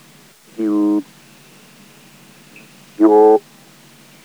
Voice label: semivowel yu yo